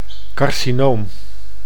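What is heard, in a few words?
"carcinoom", Dutch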